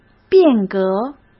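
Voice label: "变革", Mandarin Chinese; hsk; chinese-flashcards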